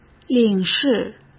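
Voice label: "领事", Mandarin Chinese; hsk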